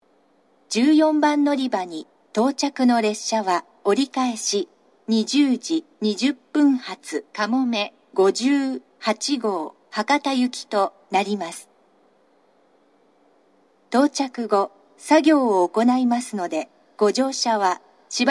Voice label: 長崎 西九州新幹線ホーム 自動放送, 14番のりば接近放送; かもめ58号 博多行き

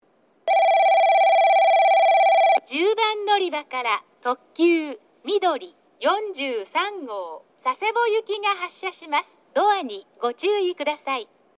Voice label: 10番のりば発車放送; takeo onsen; みどり43号 佐世保行き

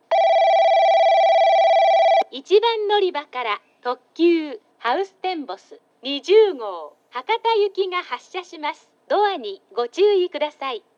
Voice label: 1番のりば発車放送; ハウステンボス; 1番のりば発車放送（ハウステンボス20号 博多行き）; 標準の放送内容です。; 2015年のハウステンボス号のワンマン化後は、発車放送の後に駆け込み乗車に関する注意放送が続いて流れます。

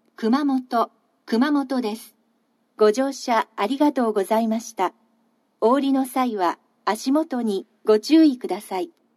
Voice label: 到着放送 男声, 新幹線ホーム; 熊本

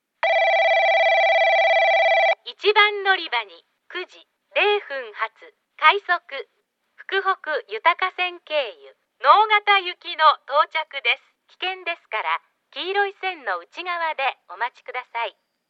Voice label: 接近放送（快速 直方行き）; JACROS簡易詳細型・JACROS簡易型; ※零分発

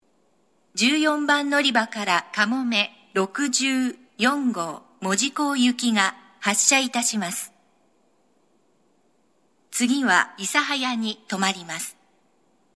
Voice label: 長崎 西九州新幹線ホーム 自動放送, 14番のりば発車放送; かもめ64号 門司港行き

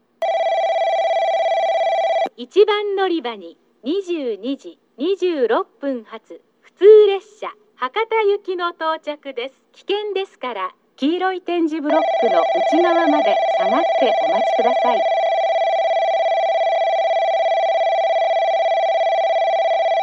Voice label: 1番のりば接近放送; 普通 博多行き; takeo onsen

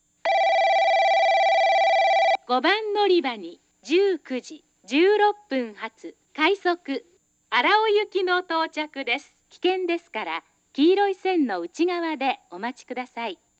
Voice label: 5番のりば接近放送（快速 荒尾行き）; 福間

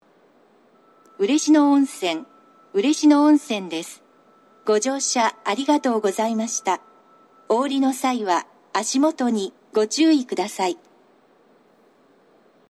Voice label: 嬉野温泉, 到着放送 女声

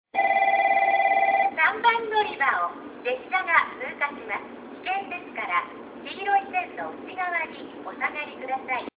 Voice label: 3番のりば通過放送 女声; 福工大前

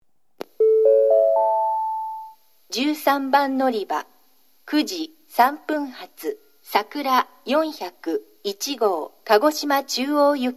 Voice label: 新水俣, 13番のりば案内放送; さくら401号 鹿児島中央行き; shin minamata

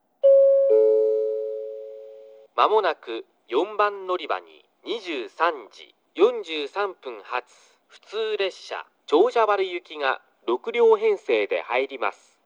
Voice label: 4番のりば予告放送（普通 長者原行き）; 「黄色い点字ブロックの内側で〜」のフレーズ

